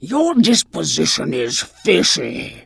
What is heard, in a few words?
Tuskarr Female Pissed; Magic User